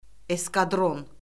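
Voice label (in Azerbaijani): "eskadron", Azerbaijani; Orfoqrafiya Lüğəti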